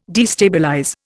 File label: "destabilize", Indian